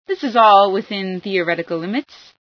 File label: limits ok, female